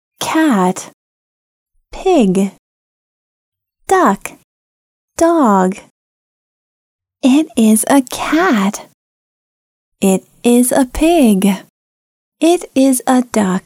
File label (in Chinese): 外籍英女 单词教学